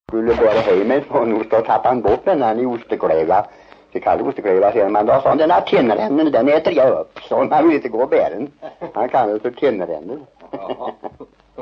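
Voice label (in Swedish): Järnskog Värmland, Svenska sagor, dialekter; Folkminnen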